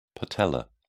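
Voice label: "patellar", British English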